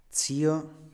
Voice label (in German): "zio", Italienisch; ts; Onkel; Standard-Italienisch